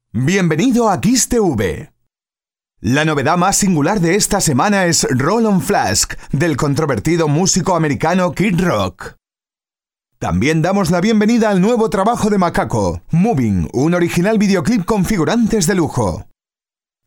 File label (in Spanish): promo television